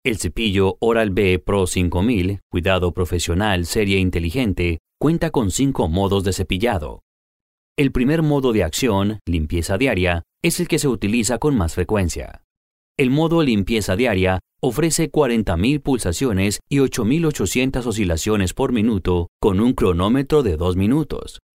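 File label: Spanish Latin American, male, corporate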